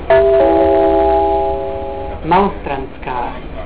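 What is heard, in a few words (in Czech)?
příjezd, Vlaková hlášení, Pražské metro; Malostranská.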